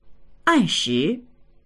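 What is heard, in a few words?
"an4shi2", Chinese; ànshí; 按时; 時間どおりに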